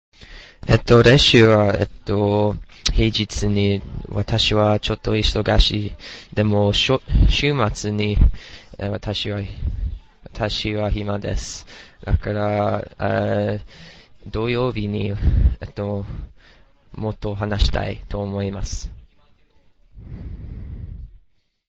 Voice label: japanese sample conversation